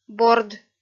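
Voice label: "борд", Komi-Zyrian, female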